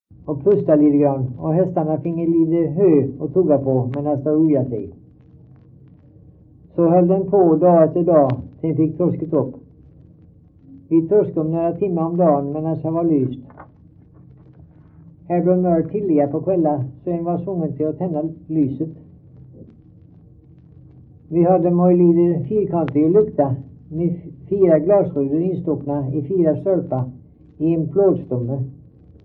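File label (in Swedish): Stamnared Halland, dialekter; Folkminnen; Svenska sagor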